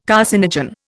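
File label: "carcinogen", Indian English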